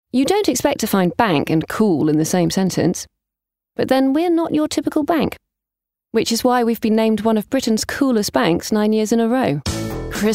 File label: Commercial Showreel